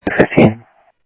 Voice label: South Scottsdale Sheriff, scanner audio; MCSO - EAST Sheriff-EastCnty

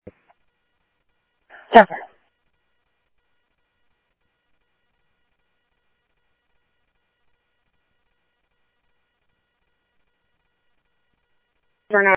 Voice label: Police, scanner audio

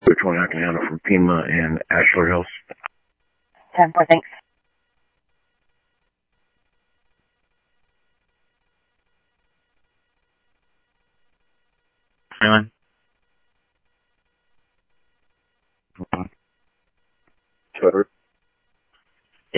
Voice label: police, scanner audio

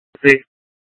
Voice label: Mutual Aid-Intersystem Interop B, scanner

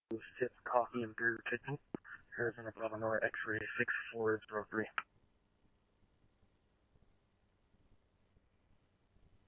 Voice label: Scottsdale Police, scanner; SPD - PD2; RWC; H-Scottsdale

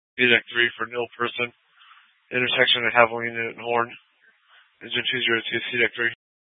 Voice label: FD Dpts VHF 156.240 Patch

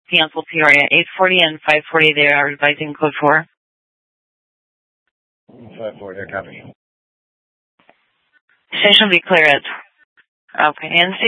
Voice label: Sheriff, scanner P25

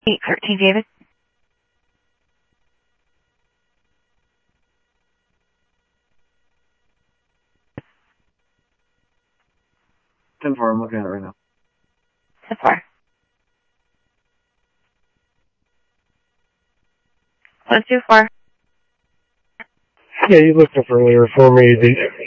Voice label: Central Sheriff Central, scanner audio

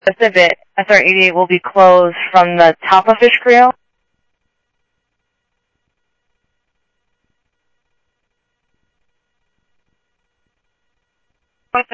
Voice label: Sheriff, scanner audio

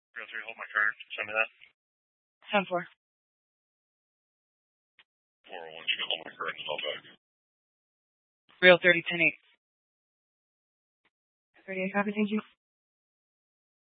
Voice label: Scottsdale Police, Simulcast

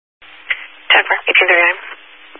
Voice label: Highway Patrol, Phoenix Metro East Area, scanner

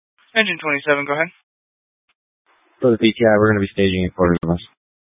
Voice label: Fire Dispatch

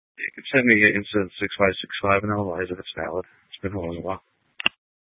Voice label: Phx PD Dispatch, scanner; A05 500 Central City Prec; C- Chandler

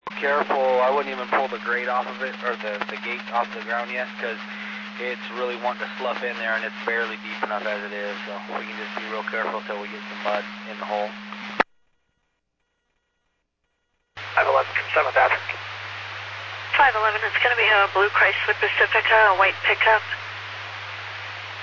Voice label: Medevac, scanner; AirEvac PhxT

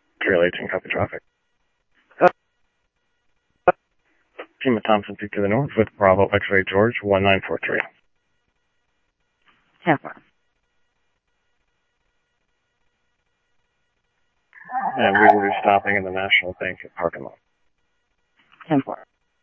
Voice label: Police, scanner audio

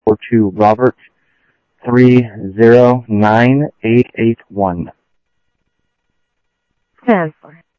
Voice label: Sheriff Common, scanner audio trunk; MCSO - Info Sheriff - Common; ThompsonPeakScot

